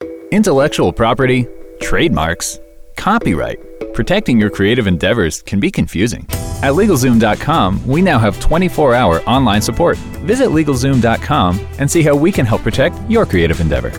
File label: Commercial; Legal Zoom